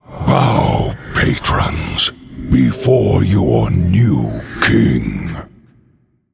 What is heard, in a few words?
Male Undead; The Lich King